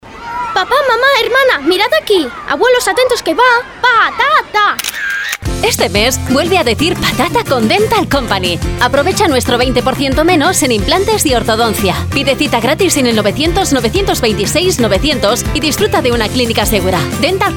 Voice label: spanish movie trailers; professional Spanish and Catalan voice actor

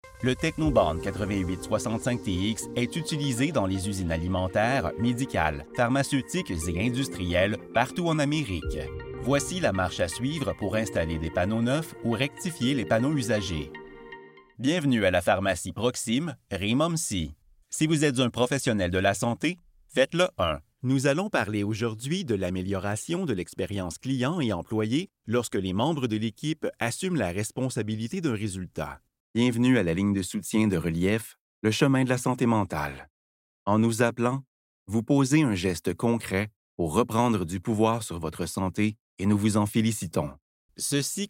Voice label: french canadian narration

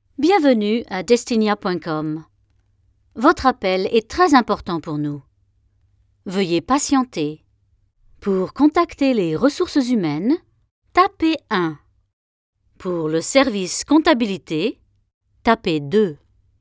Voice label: french ivr

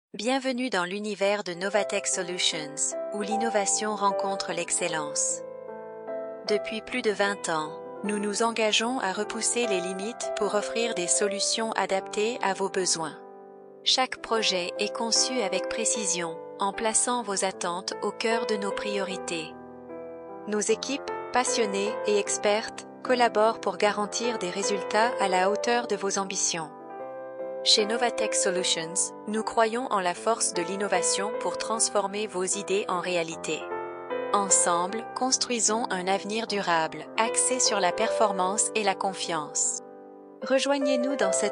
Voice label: french corporate videos, French voice actor

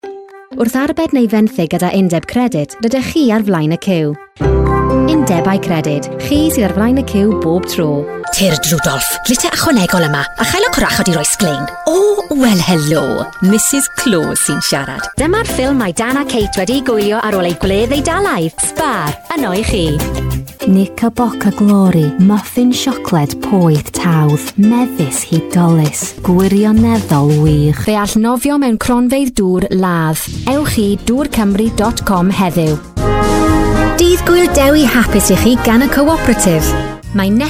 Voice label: welsh commercial

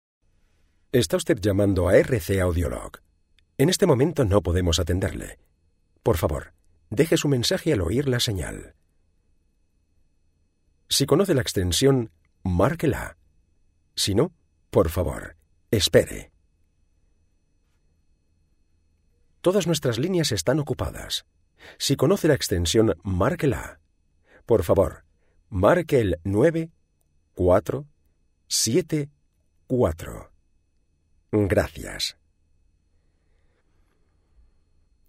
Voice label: spanish ivr

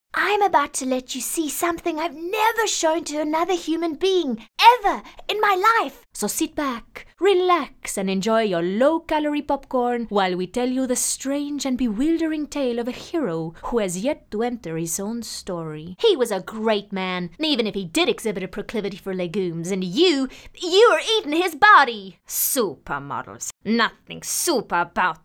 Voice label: english south african animation

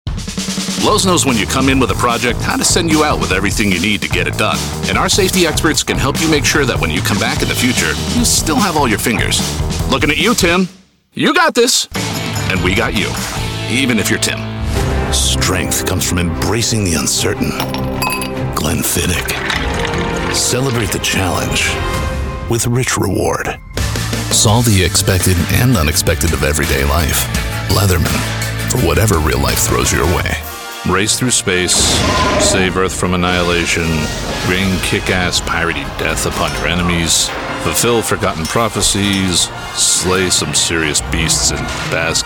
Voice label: english american commercial

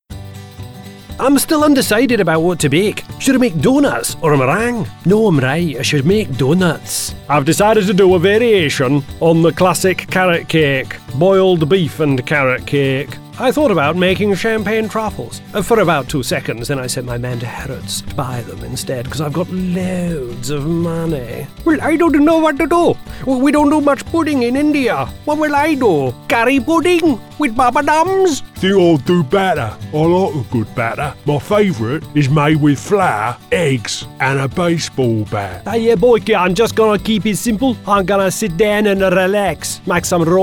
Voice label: English British animation

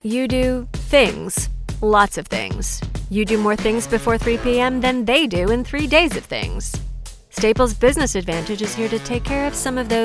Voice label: english american commercial

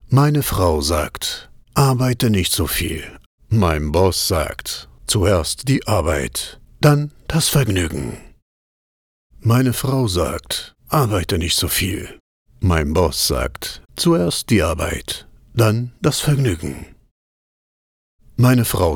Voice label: german narration

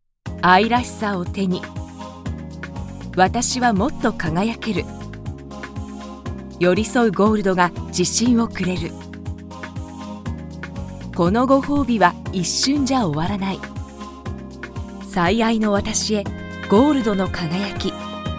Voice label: japanese commercial; Japonés; locutora japonesa profesional

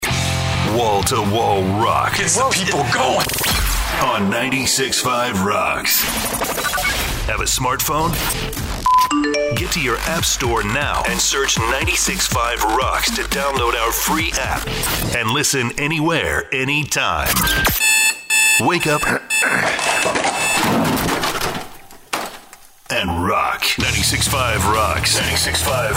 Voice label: english american

